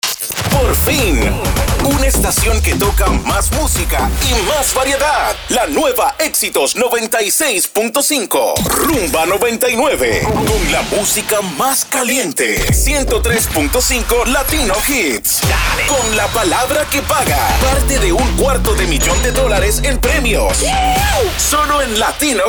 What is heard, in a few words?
SPANISH